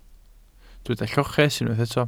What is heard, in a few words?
"lloches", Welsh